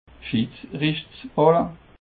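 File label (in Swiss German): "schidsrichterballe", Haut Rhin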